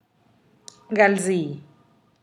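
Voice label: 22, Teotitlán del Valle Zapotec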